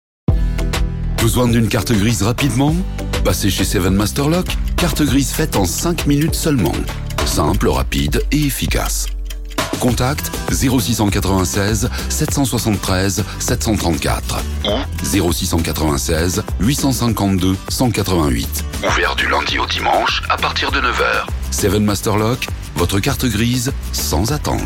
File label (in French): Spot Cartes grises et location de voitures; Seven Masters Loc